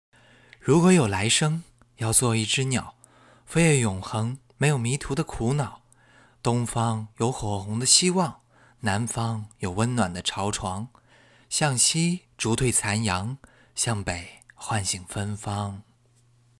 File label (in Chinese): 情感类